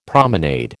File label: "promenade", American